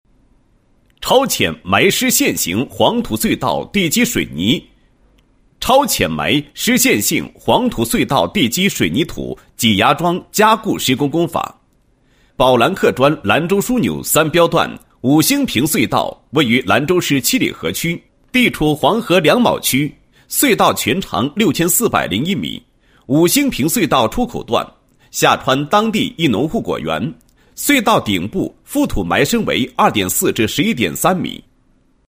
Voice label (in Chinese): 男 工程解说; 地基水泥土挤密桩加固施工工法解说